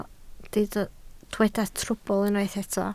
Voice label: "trwbl", Welsh

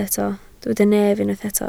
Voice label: "nef", Welsh

